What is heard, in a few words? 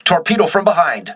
voice message torpedo rear, crew ships english